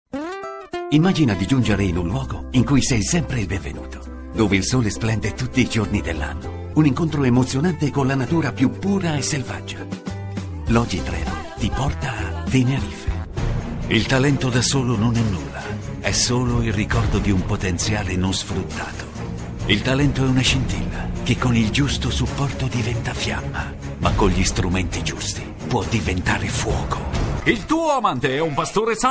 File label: Commercial